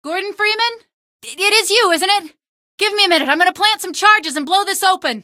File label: female; hl2; streetwar; tunnel; Source engine